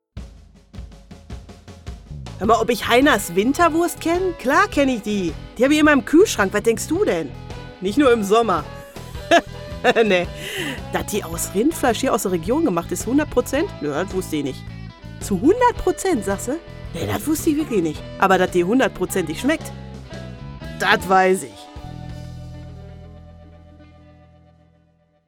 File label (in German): Werbung Ruhrpott Deutsch